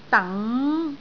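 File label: "dang2", Cantonese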